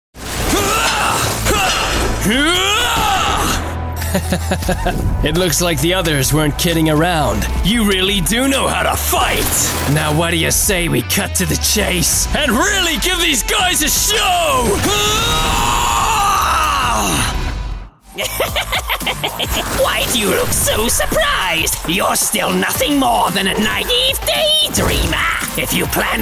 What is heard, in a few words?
english british video games